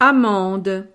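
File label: "amande", French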